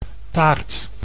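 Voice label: "taart", Dutch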